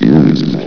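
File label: "used", male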